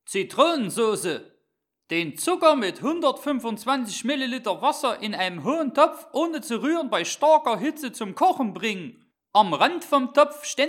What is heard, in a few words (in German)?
Sachse, Dialekte